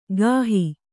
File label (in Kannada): "ಕಾಹಿ", Kannada